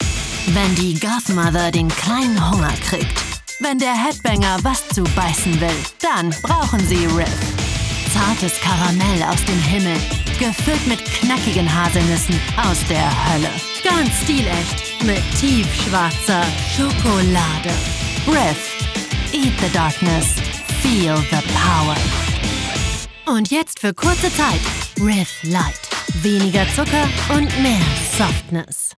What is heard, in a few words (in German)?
Werbung; Riff